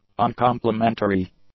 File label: "uncomplimentary", English; adjectives